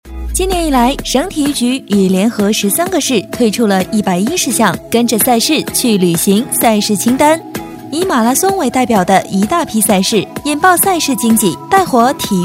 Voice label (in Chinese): 女 解说 时尚活力